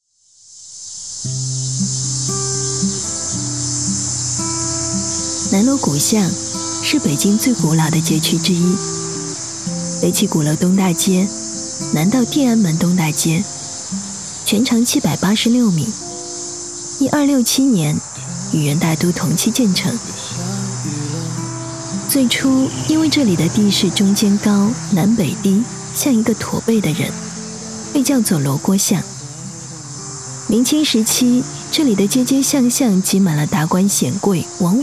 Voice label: 女 宣传片 旅游 景点解说文艺版

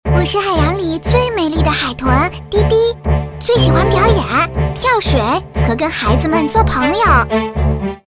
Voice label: 动画 动画片 角色 卡通, 女国

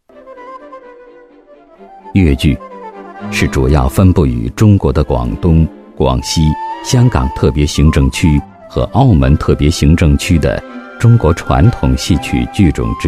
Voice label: 纪录片 人文历史 粤剧探索风格, 男

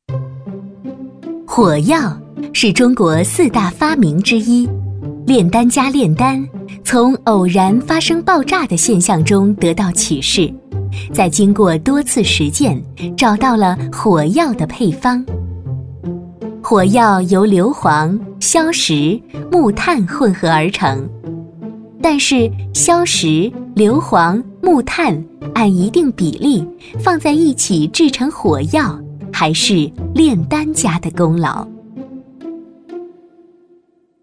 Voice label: 专题 产品 轻松; 火药

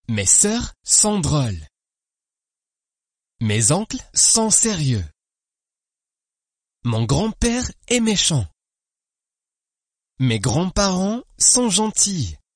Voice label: 法语男, 外语, 小语种

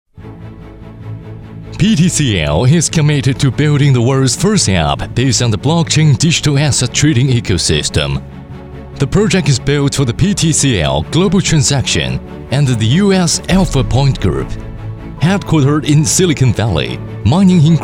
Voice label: 男英 宣传片 企业 企业宣传 轻快; 英语

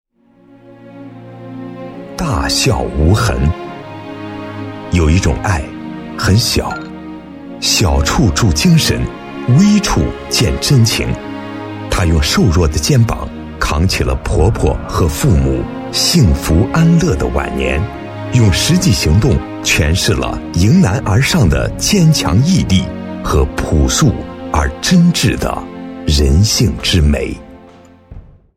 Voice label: 专题 人物 人物介绍, 男国

